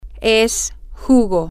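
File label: its juice, Spanish, female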